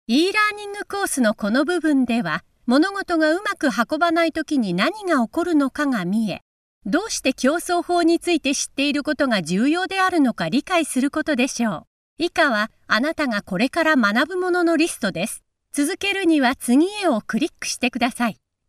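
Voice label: Japanese female e-learning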